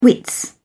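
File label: "wit", English, British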